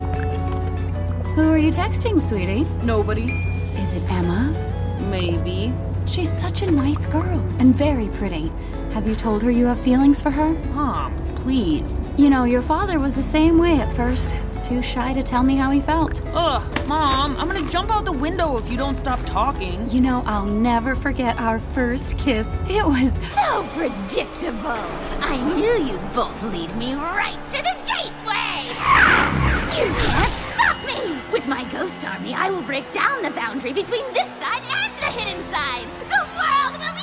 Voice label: Voiceover Animation